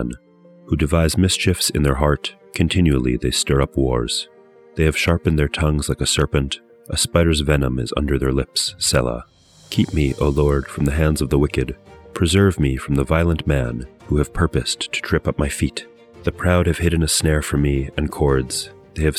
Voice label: poetry and prayer psalm english